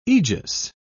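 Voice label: "egis", British English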